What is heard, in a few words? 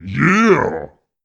"Yeah", Deep Voice Vocal